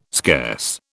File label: "scarce", British